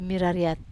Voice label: "marariat", Wamesa